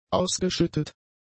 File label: "ausgeschüttet", German; adjectives